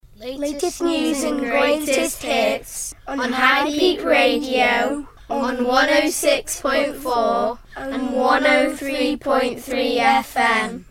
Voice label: radio jingle; buxworth primary school; high peak